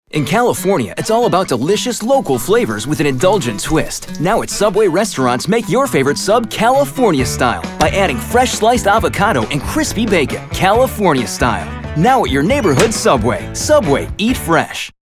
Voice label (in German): TV Werbespots; Jugendfrei; NATIVE PROFISPRECHER